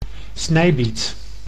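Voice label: "snijbiet", Dutch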